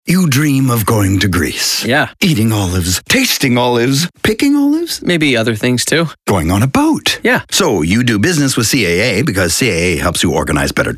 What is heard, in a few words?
commercial